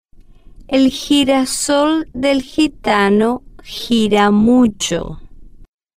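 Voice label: el Girasol Del Gitano Gira, Spanish, female, slow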